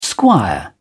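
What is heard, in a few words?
"squire", British English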